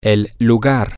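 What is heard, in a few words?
the Place, spanish, male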